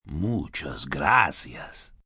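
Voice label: Thanks A Lot Spanish M, male, Spanish; VoiceBox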